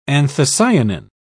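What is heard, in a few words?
"anthocyanin", British English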